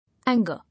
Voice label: "Anger", English